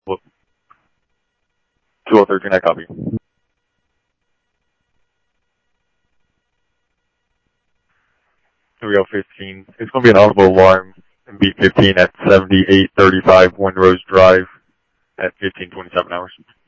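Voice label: police department, scanner audio trunk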